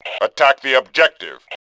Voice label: objective, Male; voice; Tribes2